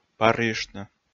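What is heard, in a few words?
"парйыштны", Komi, male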